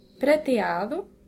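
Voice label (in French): "argente", French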